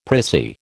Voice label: "prissy", American

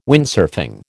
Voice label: "Windsurfing", American

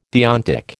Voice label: "deontic", American

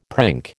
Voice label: "prink", American

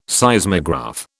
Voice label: "Seismograph", British

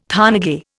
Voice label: "thanage", Indian

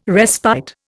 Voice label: "respite", Indian

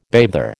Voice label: "bather", American

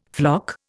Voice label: "floc", Indian

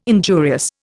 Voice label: "injurious", Indian

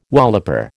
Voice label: "walloper", American

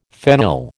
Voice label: "phenyl", American

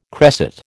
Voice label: "cresset", American

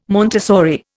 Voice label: "Montessori", Indian

